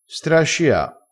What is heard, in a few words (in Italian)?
"strascia", crespinese